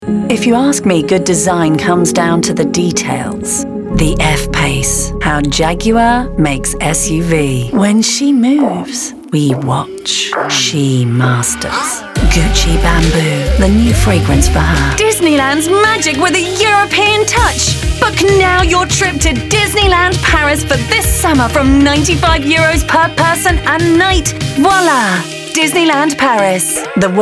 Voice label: Commercial Showreel